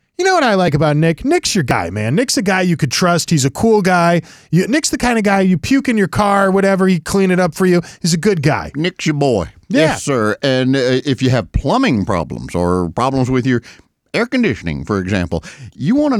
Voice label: RADIO AD; nicks plumbing radio ads